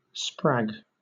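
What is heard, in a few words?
"sprag", British English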